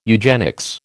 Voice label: "eugenics", American